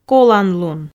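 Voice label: "коланлун", Komi, female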